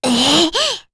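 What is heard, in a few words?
upset, Korean; heroes; Rehartna; kings raid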